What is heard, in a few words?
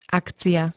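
"akcia", Slovak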